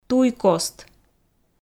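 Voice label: "туйкост", Komi, female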